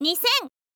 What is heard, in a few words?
"nisen", info girl voice